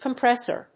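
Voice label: "compressor", English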